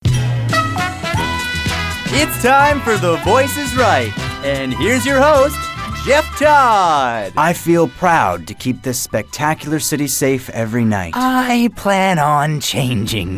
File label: animation